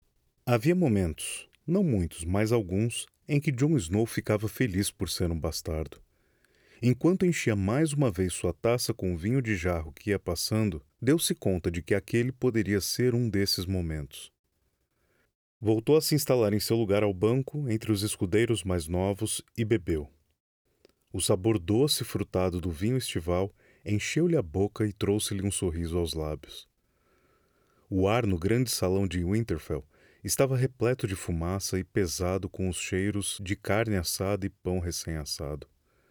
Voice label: Audiobook